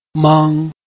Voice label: "meng1", Chinese